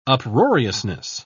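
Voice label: "uproariousness", British English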